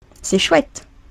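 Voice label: "c est chouette", French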